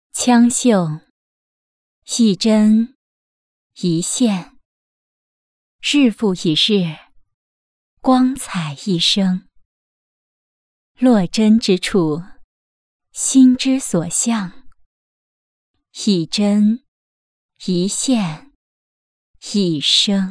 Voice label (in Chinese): 女, 旁白